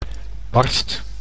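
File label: "barst", Dutch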